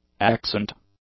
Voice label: "accent", English; nouns